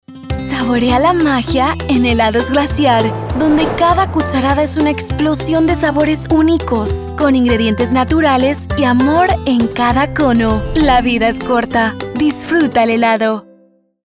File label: ENG LATAM Cheerful Inviting; Delicias Rapidas